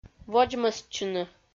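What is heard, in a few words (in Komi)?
"водзмӧстчыны", Komi, female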